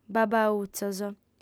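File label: "babbautzos", female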